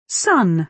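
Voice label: "sun", British English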